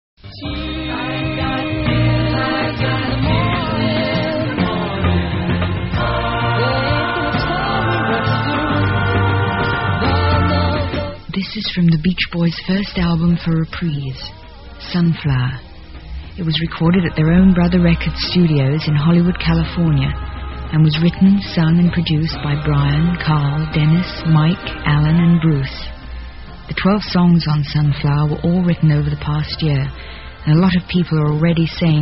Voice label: lp promo, radio spots; psychedelic promos and radio spots